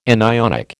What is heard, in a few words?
"anionic", American